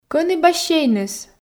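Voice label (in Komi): кӧні бассейныс, Komi, female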